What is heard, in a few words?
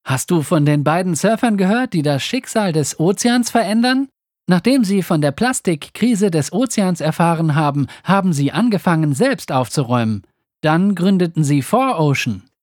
german documentaries